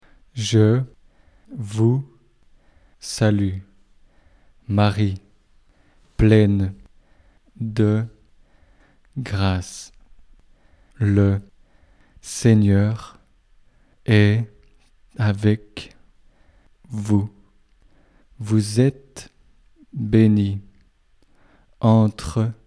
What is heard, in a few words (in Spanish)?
ave maria frances pausado